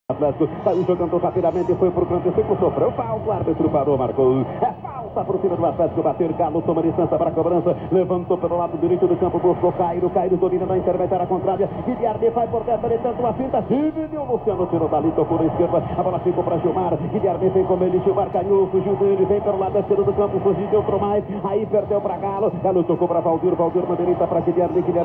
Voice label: Radio (Footbal), Fieldrecordings Brasilien